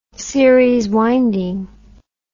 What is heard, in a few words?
"series winding", English